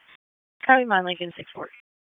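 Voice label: Police District Dispatch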